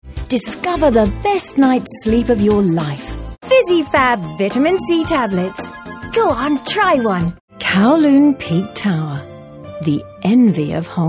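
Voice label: British English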